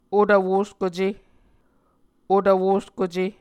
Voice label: "otvwoskuce", Muscogee